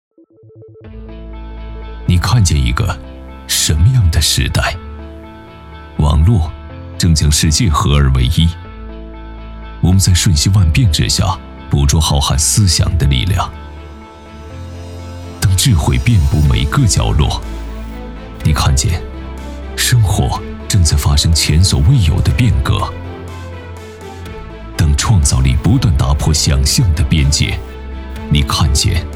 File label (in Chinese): 专题 企业 专题片 磁性, 男国; 中国联通智慧运维专题片